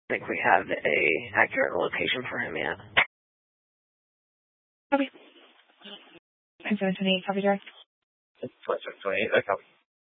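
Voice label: Sheriff Dispatch, Scanner audio recording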